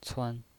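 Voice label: "cuan", Mandarin Chinese pinyin voice, neutral tone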